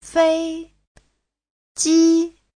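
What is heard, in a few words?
"fēijī", Mandarin Chinese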